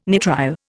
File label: "nitrile", Indian